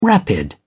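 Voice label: "rapid", British English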